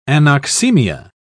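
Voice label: "anoxaemia", British English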